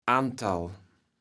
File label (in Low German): "Antal", kaevels platt